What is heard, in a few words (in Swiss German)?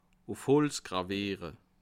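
"holz", Alsatian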